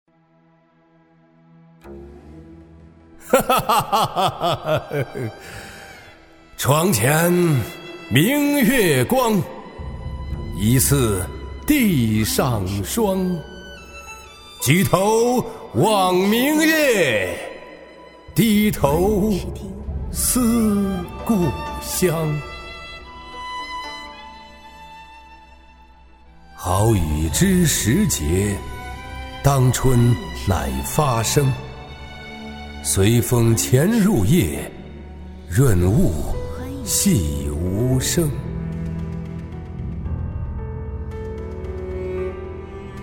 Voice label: 男 角色; 中英双语; 素人自然; 长安三万里; 李白+高适

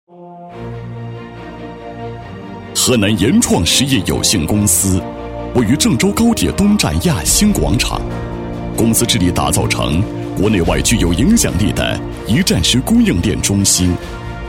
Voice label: 男, 厚重大气 大气浑厚; 延创实业

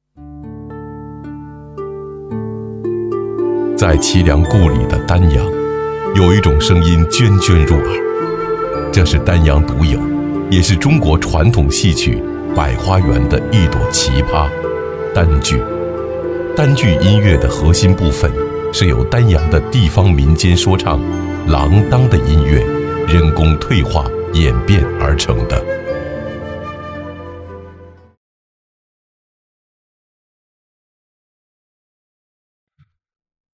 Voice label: 男 纪录片配音 低沉解说; 传统戏曲-丹剧; 大气舒缓 低沉走心

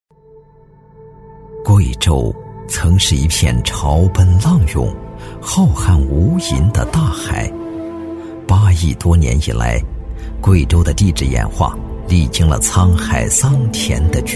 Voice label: 男, 纪录片; 历史感强; 高端大气; 贵州潮汐退去; 模仿任志宏

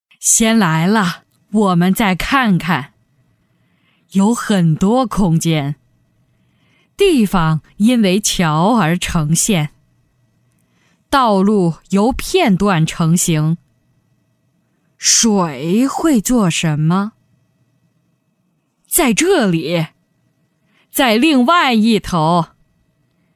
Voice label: 女-人物角色【老奶奶】; 素人自然

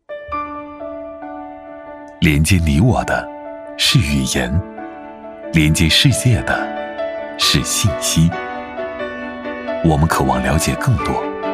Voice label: 男 宣传片 舒缓科技; 磁性沉稳 质感磁性

